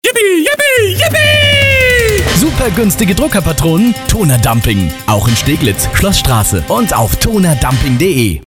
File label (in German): spot; tonerdumping steglitz